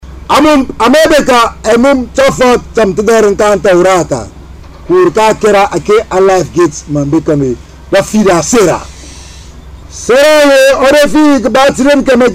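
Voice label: Themne, Sierra Leone; Africa; Stories; DEATH OF SARAH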